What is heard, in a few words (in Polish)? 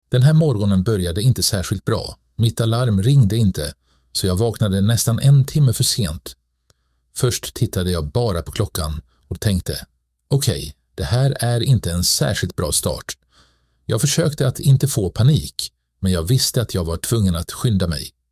szwedzki